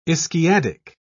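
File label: "ischiadic", British English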